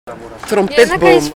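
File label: "catalpas", Dutch; Trompetboom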